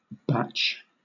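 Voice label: "bach", British English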